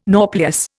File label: "nauplius", Indian